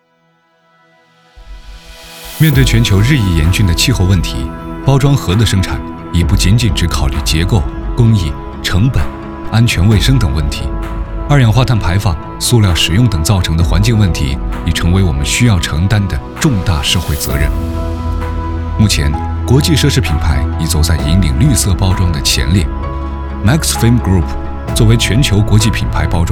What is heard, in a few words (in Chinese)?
男 科技感宣传片